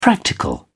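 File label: "practical", English, British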